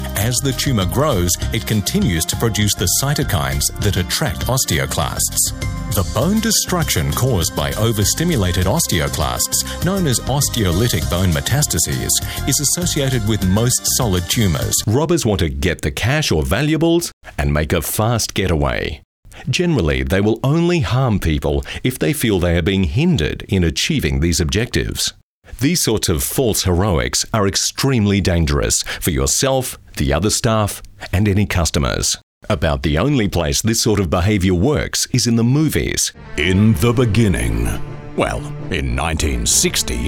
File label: E-Learning